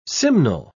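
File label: "simnel", British English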